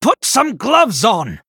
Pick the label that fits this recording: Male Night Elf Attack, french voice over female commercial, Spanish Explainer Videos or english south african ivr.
Male Night Elf Attack